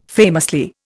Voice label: "famously", Indian

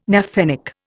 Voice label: "naphthenic", Indian

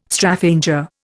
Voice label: "Straphanger", Indian

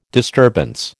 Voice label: "disturbance", American

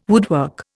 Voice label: "woodwork", Indian

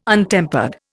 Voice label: "untempered", Indian

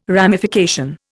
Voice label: "ramification", Indian

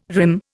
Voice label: "rim", Indian

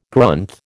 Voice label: "Grunth", American

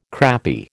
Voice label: "crappy", American

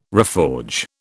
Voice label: "reforge", British

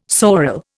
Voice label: "sorel", Indian

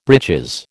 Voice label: "breeches", American

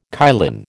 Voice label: "kylin", American

